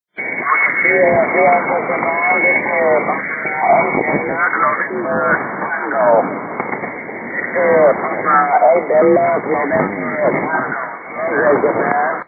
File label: 40 ssb